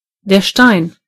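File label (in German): "rock", German; gcompris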